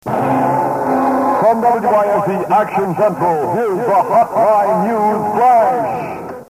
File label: with news flash; WYSE